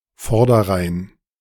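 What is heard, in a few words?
"Vorderrhein", German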